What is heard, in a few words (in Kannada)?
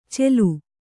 "ಚೆಲು", Kannada